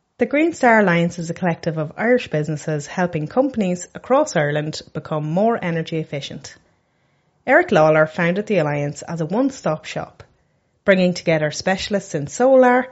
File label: narration; The Green Star Alliance; DesignBurst